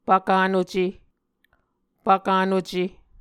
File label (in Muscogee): "pvkanuce", Muscogee